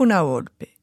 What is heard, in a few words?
"volpi", Moita Verde (nord)